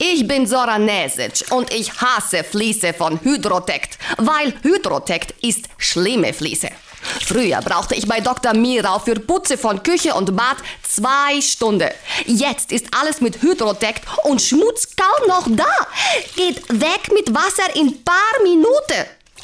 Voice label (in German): Akzent Osteuropäisch